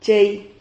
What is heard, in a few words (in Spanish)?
"chei", Silabas